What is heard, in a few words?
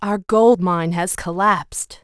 Night Elf Huntress Gold Mine Collapsed; War3